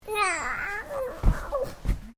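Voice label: MALE 2 year old UNHAPPY; Talking Sound Effects; Talking (Sound Effects:Voice)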